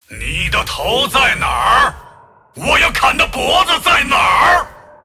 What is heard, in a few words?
move, zh